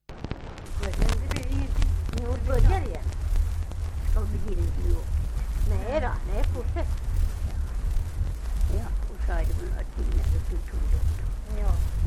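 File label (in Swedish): Venjan Dalarna, dialekter; Folkminnen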